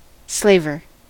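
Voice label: "slaver", US English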